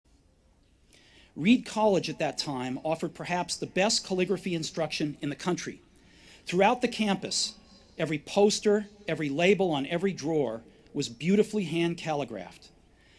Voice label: Commencement Address; Steve Jobs; 2005; Stanford